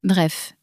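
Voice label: "bref", French, female; flashcards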